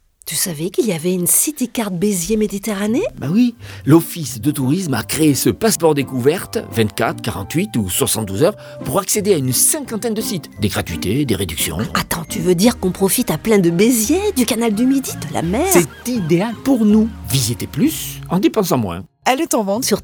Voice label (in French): spot; beziers; virgin rfm